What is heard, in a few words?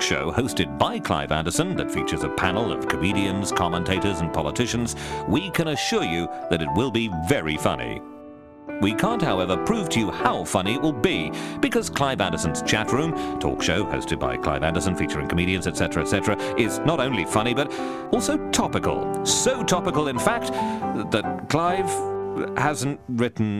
Radio Comedy Promo